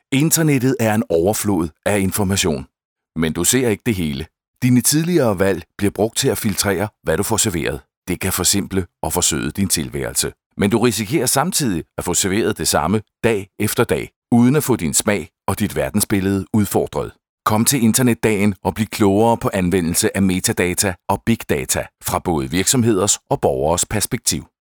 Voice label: danish narration